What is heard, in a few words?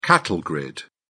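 "cattle grid", British English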